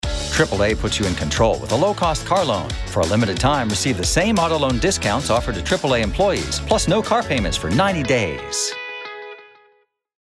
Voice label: Commercial; AAA